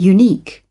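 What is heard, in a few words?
"unique", British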